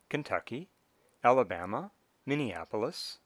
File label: Kentucky Alabama Minneapolis Emphatic Question